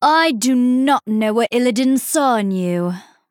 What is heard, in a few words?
Female Night Elf Start